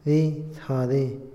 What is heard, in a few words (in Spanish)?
prometer, Otomí, hombre; Habla; INALI